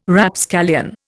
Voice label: "Rapscallion", Indian